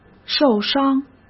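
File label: "受伤", Mandarin Chinese; chinese-flashcards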